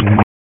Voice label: Police Northwest District Tac 1; Raleigh; wake